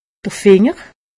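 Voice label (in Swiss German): "finger", Haut Rhin; medecine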